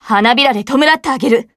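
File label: skill, Japanese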